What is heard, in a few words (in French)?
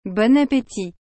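"Bon appétit", French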